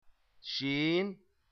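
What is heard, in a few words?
"sin", Arabic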